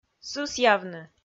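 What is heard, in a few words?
"сысъявны", Komi, female; audio dict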